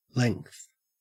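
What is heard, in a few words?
"length", Australian English; pronunciations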